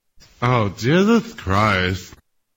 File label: annoyed; jesus christ